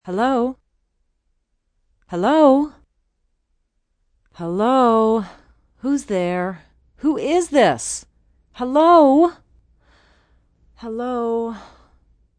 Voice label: Phone Hello, Voice on the telephone, Hello, Hello, Hello!! who's there, who's there!; (human voice speaking); VoiceBox